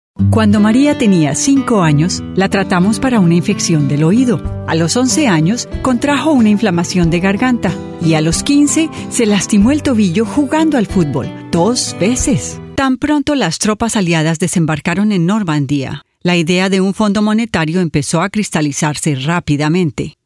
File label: Spanish Commercial noslate